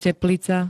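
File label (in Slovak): "Teplica", Slovak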